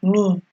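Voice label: "me", Nigerian Pidgin, female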